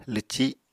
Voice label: "lwci7", Apatani